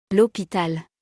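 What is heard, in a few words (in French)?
"L hopital", French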